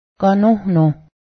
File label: full, Cayuga; ǫgahdaˀ